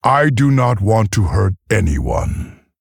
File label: Male Tauren Idle; Guff; Scabbs